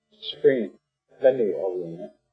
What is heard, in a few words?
"bénii'owúúni'", Arapaho; bénii'owúúni' ("it is thawing"); spring; Arapaho Language Project; RMNP